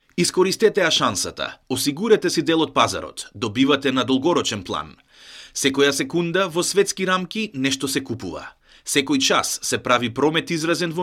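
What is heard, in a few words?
Macedonian, General; GoLocalise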